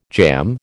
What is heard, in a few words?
"jamb", American